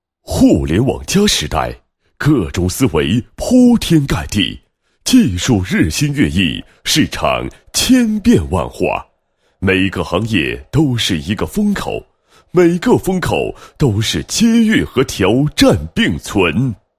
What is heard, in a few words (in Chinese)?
男 解说词 互联网协会宣传片解说词